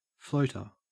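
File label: "floater", Australian English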